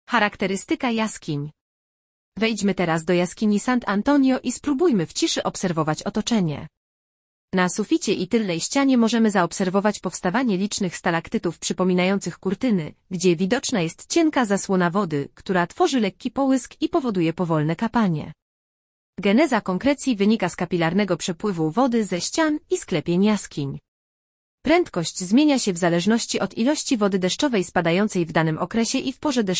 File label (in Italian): Audioguida POL Caratteristiche delle grotte